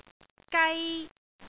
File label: "gai1", cantonese